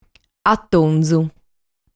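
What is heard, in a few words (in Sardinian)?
"Atonzu", Sardinian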